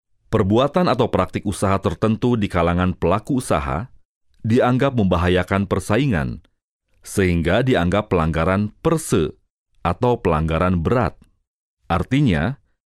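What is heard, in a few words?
Indonesian E-Learning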